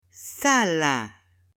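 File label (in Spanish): "sala", Spanish, female; MUEBLES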